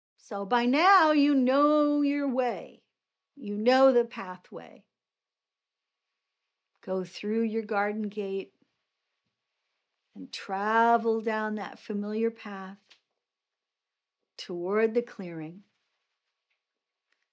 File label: Meditation Audio; 4 Aspects training